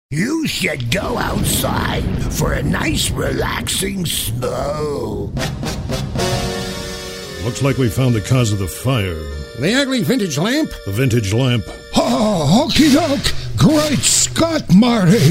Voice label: Voice Actor Voices